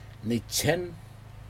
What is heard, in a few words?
"nitjen", tooth, Aneityum